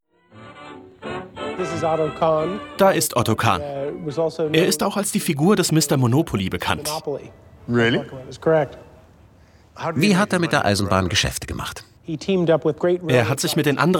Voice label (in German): Voice Over, maenner; GreatAmericanRailroad